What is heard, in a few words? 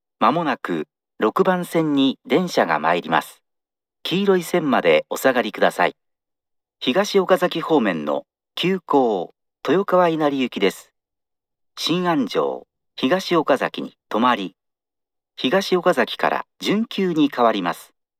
approach exp semi, st announce; toyokawainari; higashiokazaki; meitetsu; chiryust